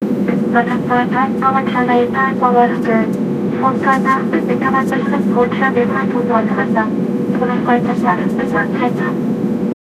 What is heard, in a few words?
plane announcement, passenger